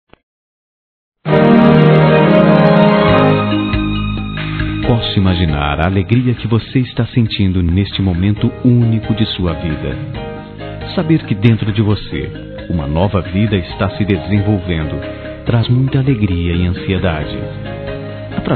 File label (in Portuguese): Parabéns a Gravida Masculino; Telemensagens; Felicitações; Telemensagens 2017